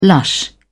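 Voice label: "lush", British English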